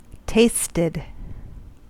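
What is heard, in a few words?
"tasted", US English; pronunciation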